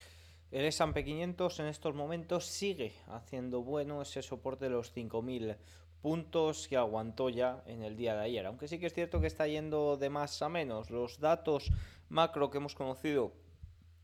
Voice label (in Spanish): radio; noticias bolsa; los 5000 puntos actuan como soporte